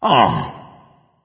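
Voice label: sigh, dragon; fafnir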